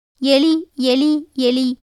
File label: Tamil